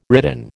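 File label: "ridden", American English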